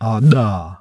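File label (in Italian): Implosive sonore Dentale/alveolare, consonanti non polmonari; IPA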